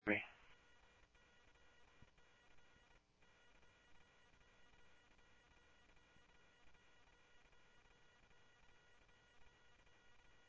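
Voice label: Mesa Simulcast Police Department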